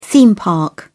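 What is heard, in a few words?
"theme park", British English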